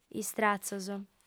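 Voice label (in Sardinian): "istratzos", Sardinian, female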